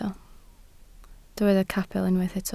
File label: "capel", Welsh